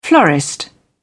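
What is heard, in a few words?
"florist", British English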